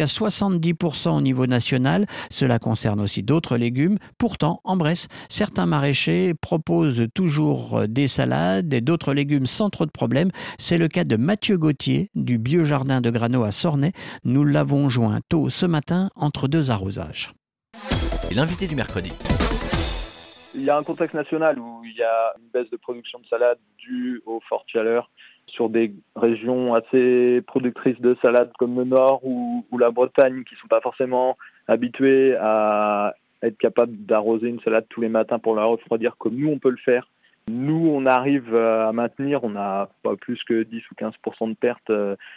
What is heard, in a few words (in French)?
radio Secheresse Canicule; Bresse